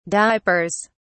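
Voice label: "diapers", British English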